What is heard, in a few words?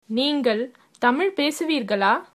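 do you speak, female, tamil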